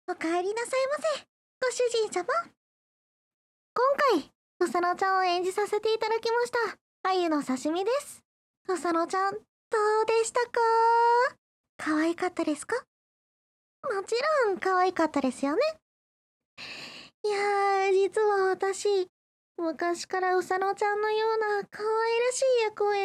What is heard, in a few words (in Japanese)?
フリートーク